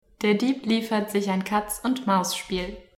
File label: deutsch listening